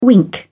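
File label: "wink", British English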